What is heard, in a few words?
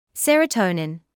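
"serotonin", British English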